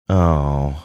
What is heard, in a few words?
dejected ohh